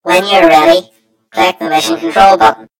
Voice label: story voiceover; yumpod